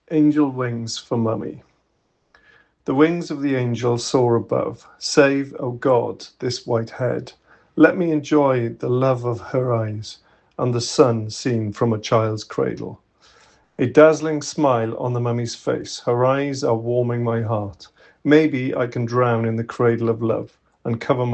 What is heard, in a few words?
english